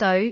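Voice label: "deoi5", Cantonese; microsoftHiuGaai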